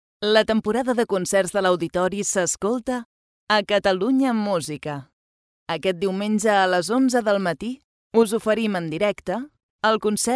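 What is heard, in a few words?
radio spanish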